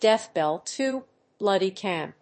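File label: "Death Bell 2: Bloody Camp", English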